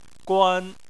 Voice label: "guan1", Chinese Phonetics; Chinese Surnames